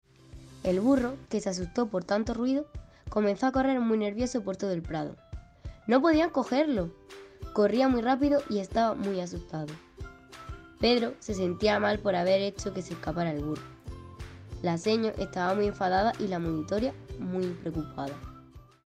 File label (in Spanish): audio cuento